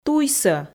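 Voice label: "туйсӧ", Komi, female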